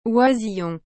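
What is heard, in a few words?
"oisillon", French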